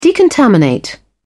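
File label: "decontaminate", British English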